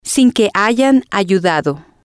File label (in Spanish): "sin Que Hayan Ayudado", spanish